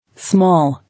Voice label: "Small", English